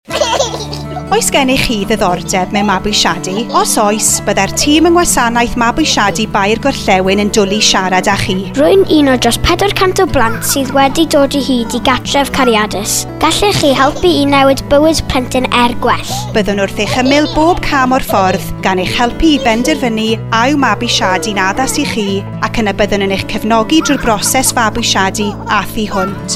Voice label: Welsh